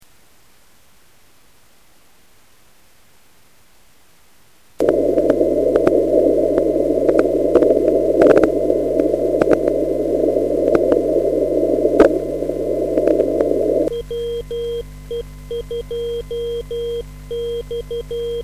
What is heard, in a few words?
QSO